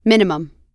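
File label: "minimum", American English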